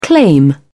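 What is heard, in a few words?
"claim", British English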